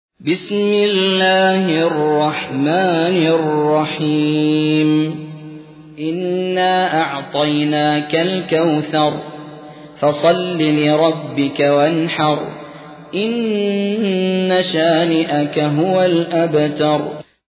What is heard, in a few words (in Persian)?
ترتیل; سوره کوثر